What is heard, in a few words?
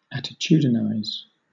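"attitudinize", British English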